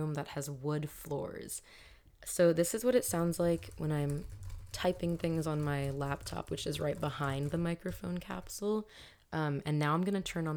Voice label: cardioid talk